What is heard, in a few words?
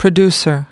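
"producer", English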